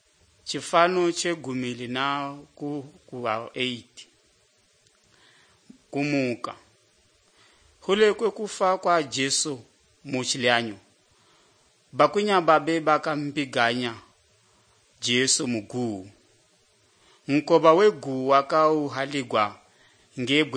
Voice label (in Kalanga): good news kalanga lilima, drama